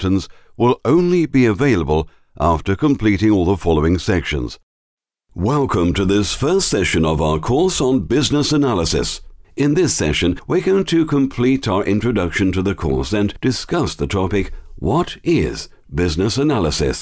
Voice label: training and elearning uk voiceover

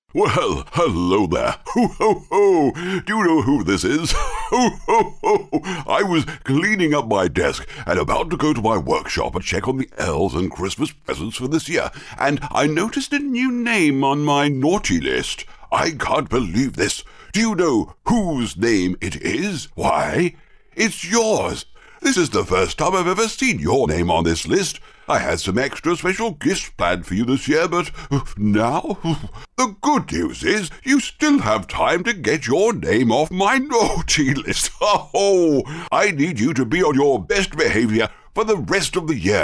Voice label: santa uk voiceover

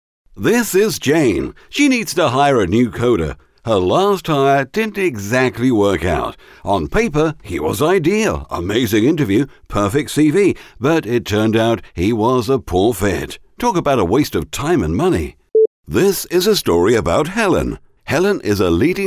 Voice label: explainer scripts uk voiceover

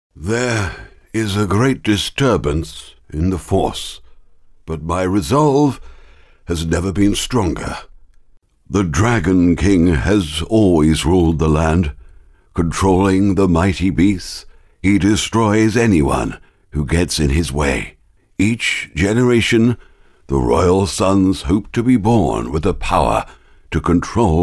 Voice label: old man voices uk voiceover; Acting

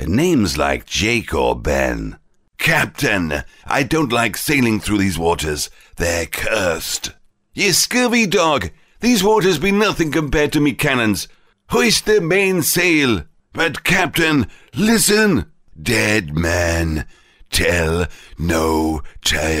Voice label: pirate uk voiceover

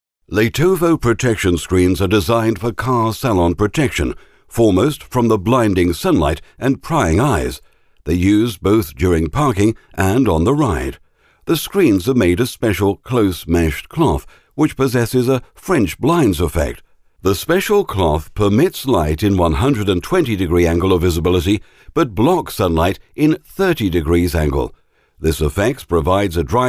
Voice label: industrial uk voiceover

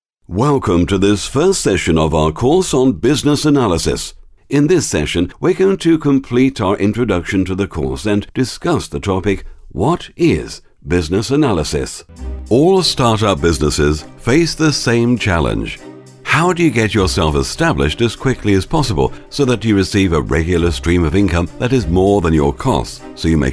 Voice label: training and elearning excerpts, uk voiceover

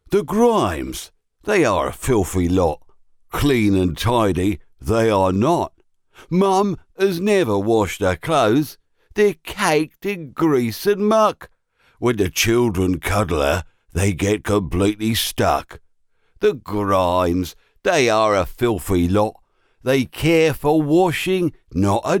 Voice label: cockney uk voiceover; Cockney - Hard

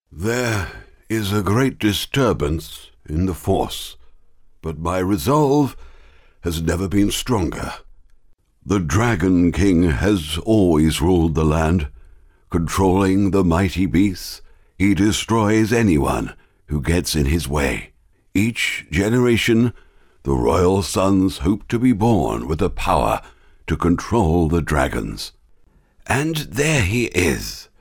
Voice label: elderly uk voiceover

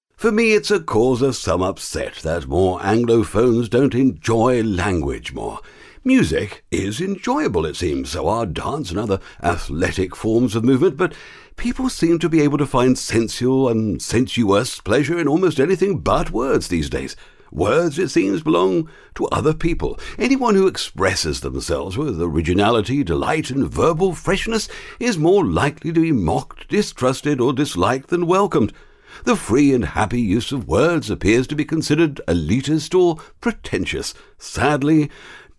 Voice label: upper class british uk voiceover

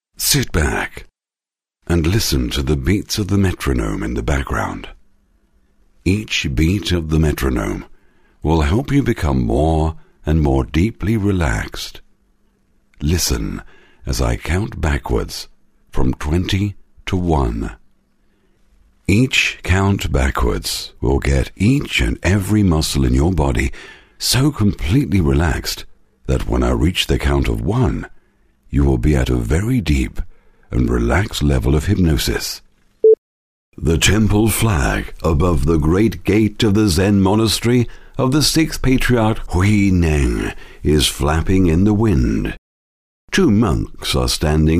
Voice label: meditation calm; Hypnosis

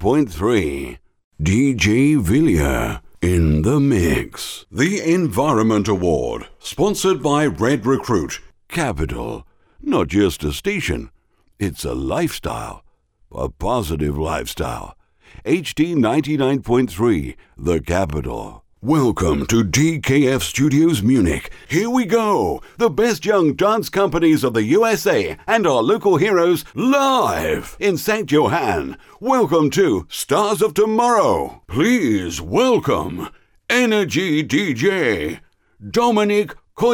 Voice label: radio imaging uk voiceover

